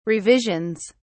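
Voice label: "revisions", British English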